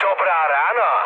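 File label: player killed enemy, Czech, mood low; War Thunder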